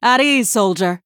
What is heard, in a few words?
Female Human Greetings